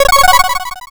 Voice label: robo voice